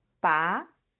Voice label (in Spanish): "拔", Chino